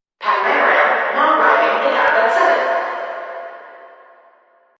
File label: announcer